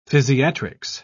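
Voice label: "physiatrics", British English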